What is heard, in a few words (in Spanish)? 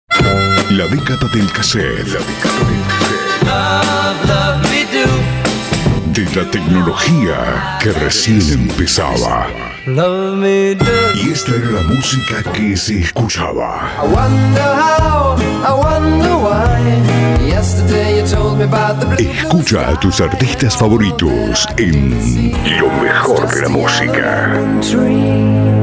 Arte Clasicos, Spots de clasicos, Voz masculina; Pack arte atemporal